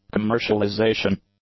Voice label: "commercialization", English; nouns